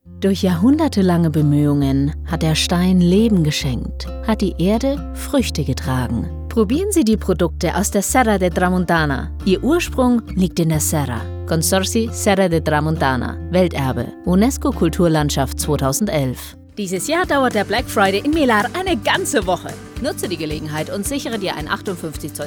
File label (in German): Werbung, DE